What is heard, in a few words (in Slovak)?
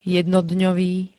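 "jednodňový", Slovak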